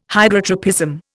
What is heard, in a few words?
"Hydrotropism", Indian